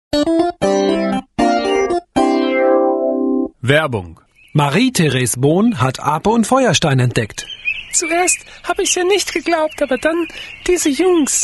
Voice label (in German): werbung